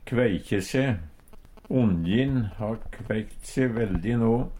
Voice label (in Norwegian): "kveikje se", Numedalsmål